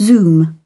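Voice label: "zit", British English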